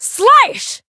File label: chant